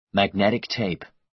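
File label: "magnetic tape", American English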